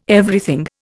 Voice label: "Everything", Indian

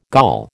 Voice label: "Gaul", American

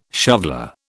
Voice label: "shoveller", British

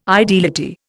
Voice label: "ideality", Indian

